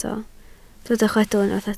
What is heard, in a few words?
"chwedl", Welsh